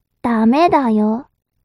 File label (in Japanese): mob 女子 台詞